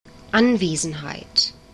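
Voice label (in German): "Anwesenheit", German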